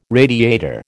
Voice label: "radiator", American